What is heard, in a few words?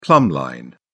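"plumb line", British English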